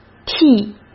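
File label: "剃", Mandarin Chinese; chinese-flashcards; hsk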